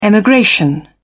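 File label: "emigration", British English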